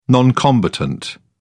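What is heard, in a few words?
"non combatant", British English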